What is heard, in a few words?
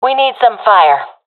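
request fire, professional female; vo; Counter Strike Model Pack; CS:GO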